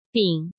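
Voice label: "Bǐng", Chinese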